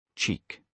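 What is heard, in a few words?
"cheek", British English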